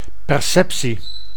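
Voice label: "perceptie", Dutch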